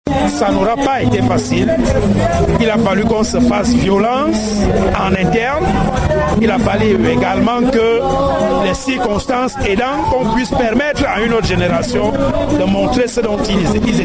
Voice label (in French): Micro trottoir Reaction apres match; rfi; francais; CAMEROUN; MATIN